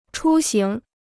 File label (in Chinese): "出行", Chinese